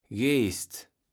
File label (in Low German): "Geest", Platt